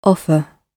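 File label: "offer", British English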